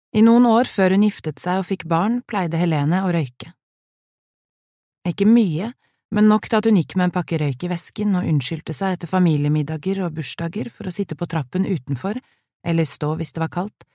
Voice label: NOR Audiobook F

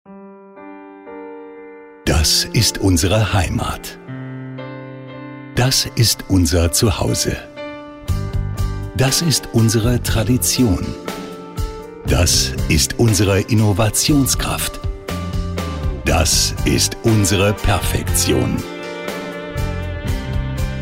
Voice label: ger m informative

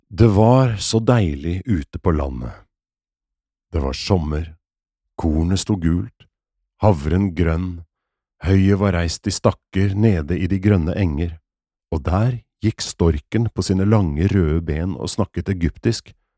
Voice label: Audiobook M

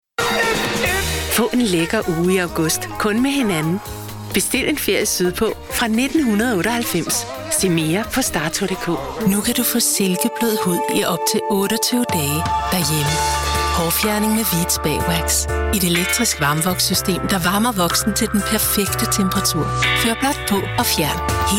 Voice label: voice samples commercial

